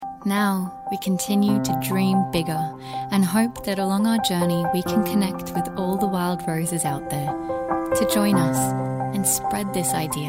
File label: Australian Voice Over